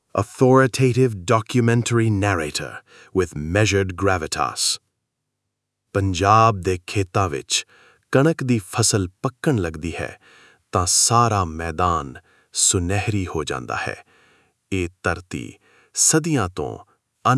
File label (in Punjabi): documentary, Punjabi